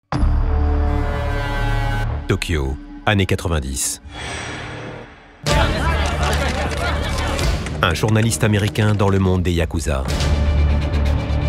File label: trailer; TOKYO VICE